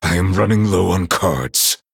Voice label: Male Night Elf Low Cards